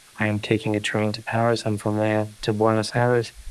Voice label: Voice Acting, es

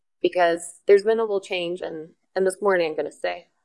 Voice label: VoiceActing es F

